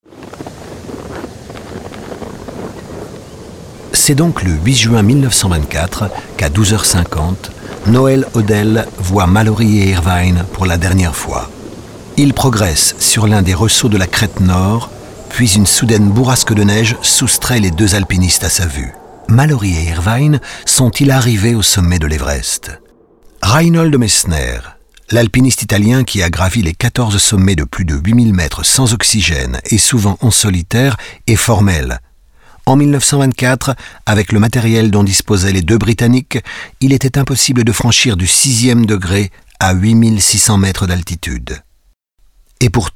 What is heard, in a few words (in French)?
docu; everest 1924